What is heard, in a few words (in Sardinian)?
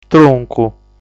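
"Truncu", Sardinian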